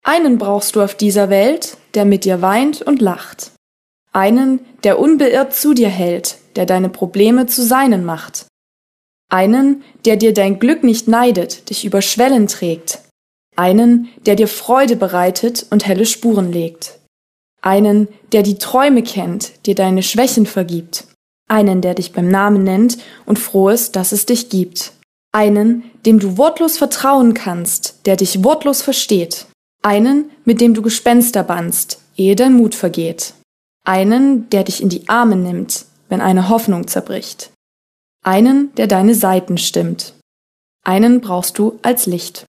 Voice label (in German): deutsch, Hochzeitsredner; freie redner leipzig